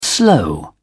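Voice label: "slow", British English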